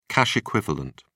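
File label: "cash equivalent", British English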